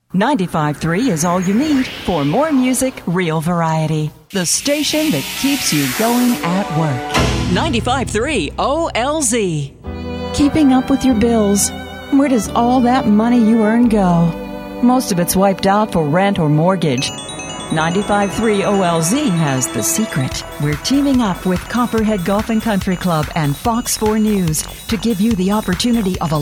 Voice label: radio demo sweepers promo